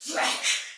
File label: Attack Normal Soldier Female, Battle Barks